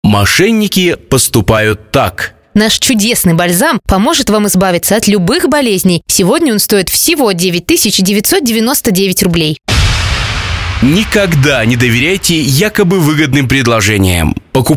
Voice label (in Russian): Reklama lekarstv